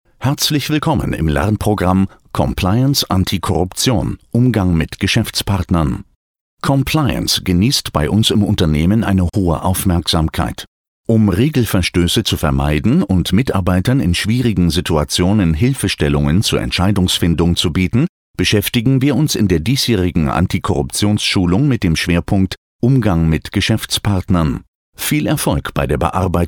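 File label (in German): elearning tutorial, German; COMPLIANCE; RWE AG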